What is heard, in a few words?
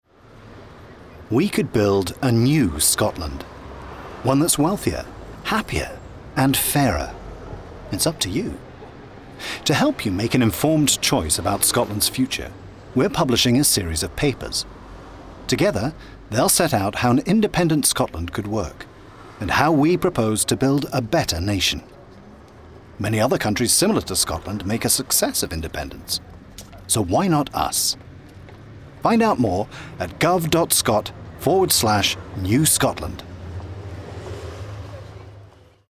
Commercial RP; Scot Gov New Scotland